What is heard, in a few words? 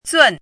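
"zun4", Mandarin pinyin syllable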